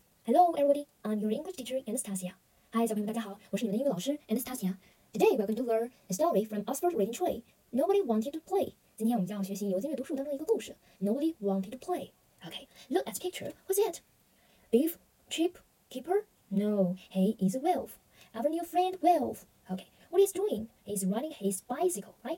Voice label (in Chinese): 女 快速版 英文; 江河